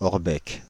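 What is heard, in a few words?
"Orbec", French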